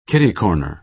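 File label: "kitty-corner", American English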